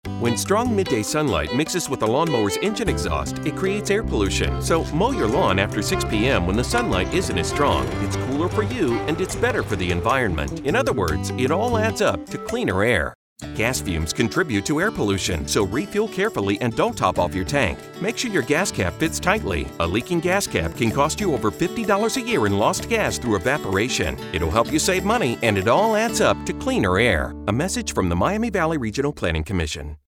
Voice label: radio